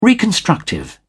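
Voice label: "reconstructive", British English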